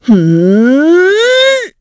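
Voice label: Vox Casting, Korean; Isolet; kingsraid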